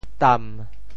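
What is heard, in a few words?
"tam1", Teochew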